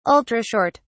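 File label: "ultra short", British English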